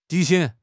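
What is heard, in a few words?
"ti2 xing3", Mandarin Chinese; HSK4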